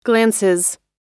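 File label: "glances", American English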